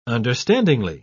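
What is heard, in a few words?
"understandingly", English, British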